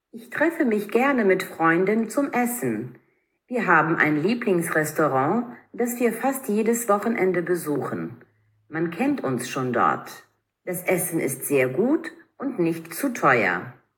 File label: German language test Record